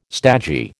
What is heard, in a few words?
"stagey", American